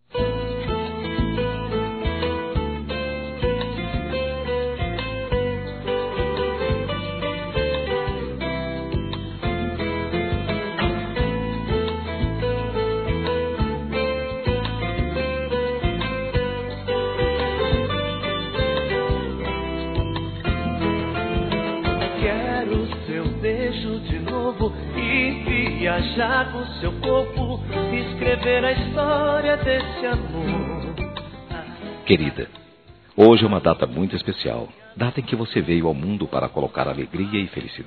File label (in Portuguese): Aniversário Sertanejo Esposa Masculino; ANIVERSARIO romantico